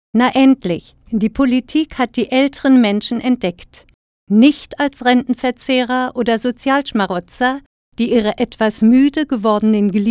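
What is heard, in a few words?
german female narration; voice demos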